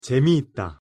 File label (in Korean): "재미있다" Korean; pronunciation